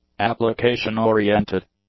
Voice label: "application-oriented", English; adjectives